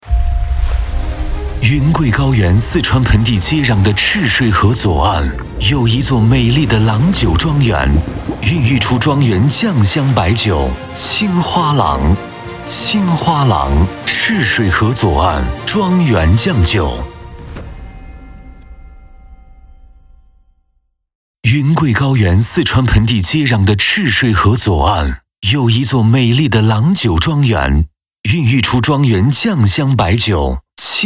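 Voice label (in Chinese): 广告磁性高端; 青花郎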